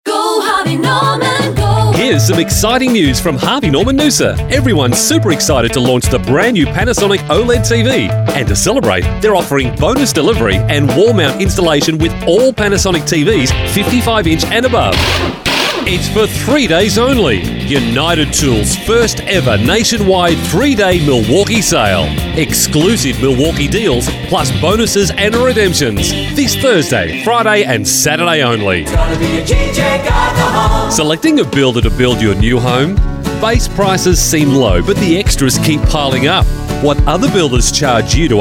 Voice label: male talent retail